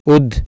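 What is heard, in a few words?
"ud", Proto-Indo-European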